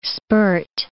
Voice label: "spurt", english

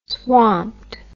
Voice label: "swamped", English word

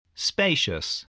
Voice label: "spacious", English voice

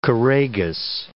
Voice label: "choragus", English

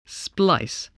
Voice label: "splice", voice

